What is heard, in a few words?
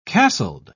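"castled", British English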